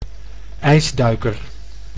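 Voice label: "ijsduiker", Dutch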